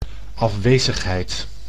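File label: "afwezigheid", Dutch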